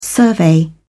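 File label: "survey", British English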